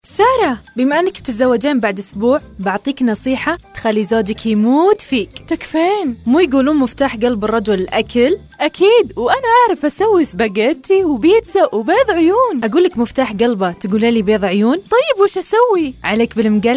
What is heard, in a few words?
Gulf Saudi Arabia Riyadh female